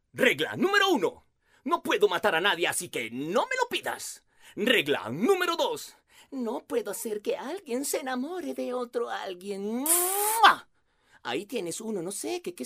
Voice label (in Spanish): Personaje de fantasía masculino